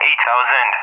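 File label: "800", English speech; Numbers; Allied Flight; DCS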